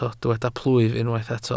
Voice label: "plwyf", Welsh